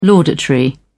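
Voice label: "laudatory", British English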